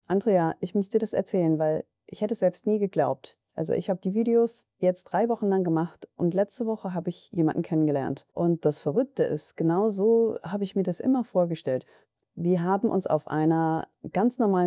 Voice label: Voicemail